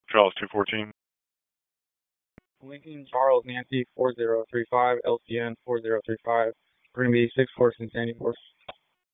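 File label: Police Northeast District Disp, radio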